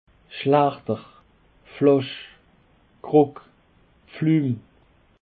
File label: "schlechder", Alsace